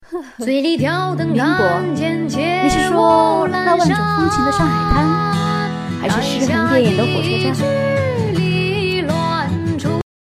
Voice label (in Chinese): 配音